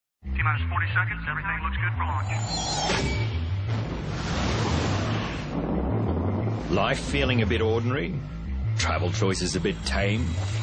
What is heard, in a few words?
Narration